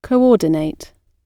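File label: "coordinate", British English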